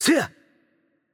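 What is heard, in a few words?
Hungarian attack light voice; bloom minigame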